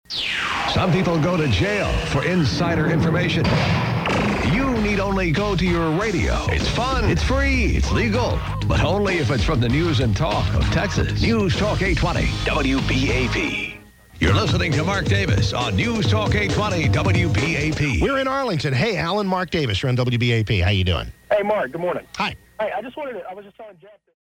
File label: sweeper; wbap